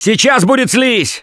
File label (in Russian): warn spitter incoming, left4dead2 russian, Survivor Voice; Gambler